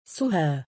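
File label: female name "suhaa", Arabic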